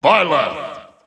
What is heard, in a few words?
English Announcer; Byleth; SSBU